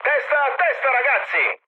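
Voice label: Italian near waypoint mood med, speech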